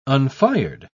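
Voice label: "unfired", English, uk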